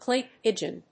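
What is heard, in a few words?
"clay pigeon", English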